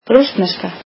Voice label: "Russian", Icelandic

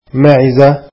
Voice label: goat, arabic

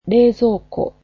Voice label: "refrigerator", japanese

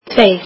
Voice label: "two", Icelandic

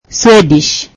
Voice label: swahili Swedish

